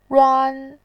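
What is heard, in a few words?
"ruan", Mandarin Chinese, first tone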